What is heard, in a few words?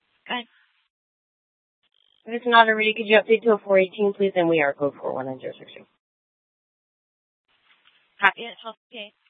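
police department Dispatch, scanner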